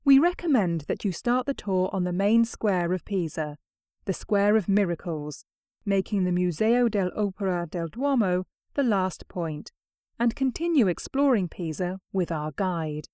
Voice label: Audio guide

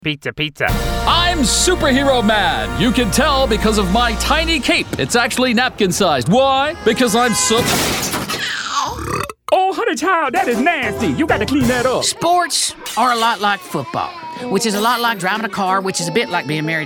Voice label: Animation

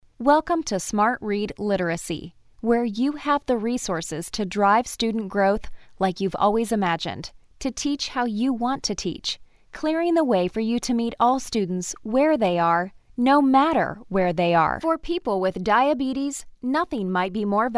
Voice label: e-learning

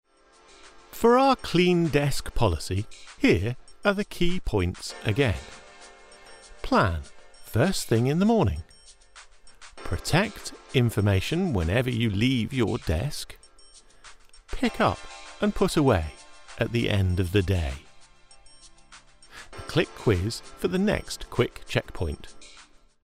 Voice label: eLearning Policy

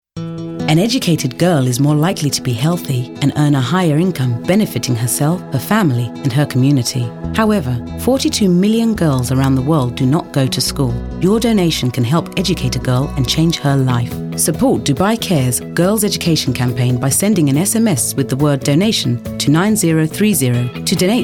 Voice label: Documentary

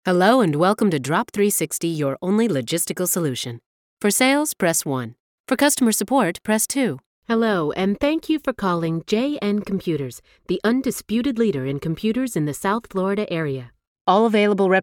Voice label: IVR sample

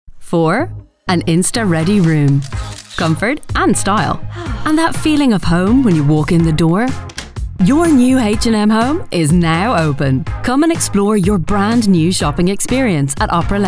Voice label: New Store ADVERT; Cork

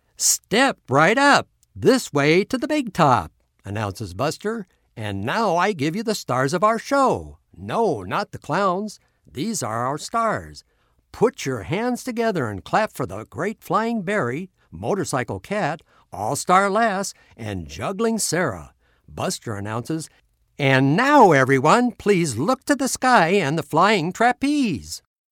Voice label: Audiobook Under The Big Top Show

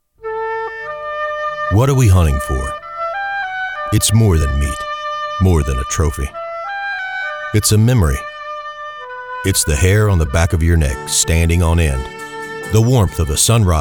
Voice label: Trailer

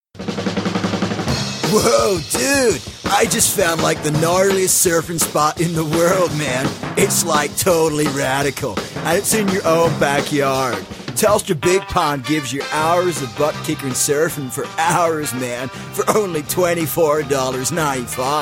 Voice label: Accents; Dutch

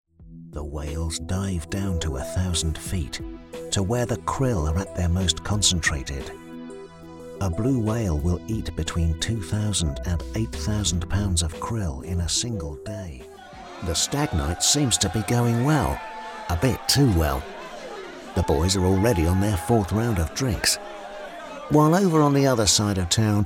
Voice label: narration montage

